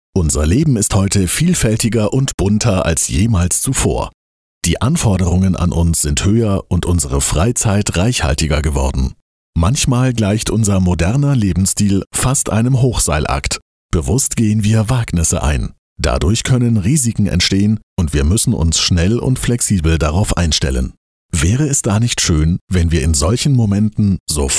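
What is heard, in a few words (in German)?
Industrie Versicherung seriös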